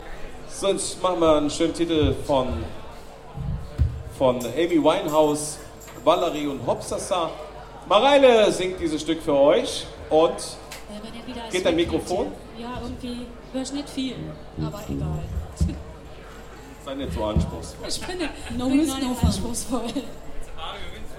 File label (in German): Ansage, Konzert; Boemundhof, Saarburg